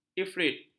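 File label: "ifrit", Malay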